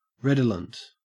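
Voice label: "redolent", Australian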